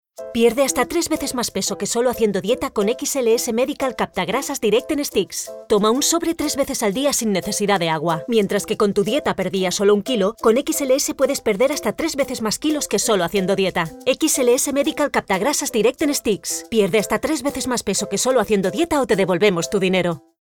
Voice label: female spanish comercial